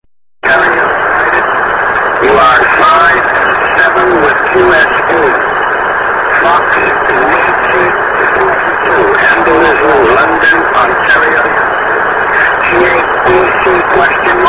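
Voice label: amateur radio contact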